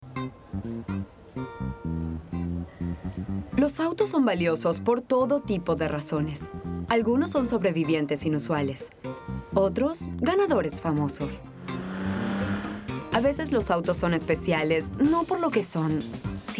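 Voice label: latam female commercial; voice demos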